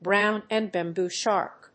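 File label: "Brownbanded bamboo shark", English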